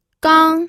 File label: "gang1", pinyin audio